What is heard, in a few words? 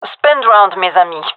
request spend, gendarmerie fem; vo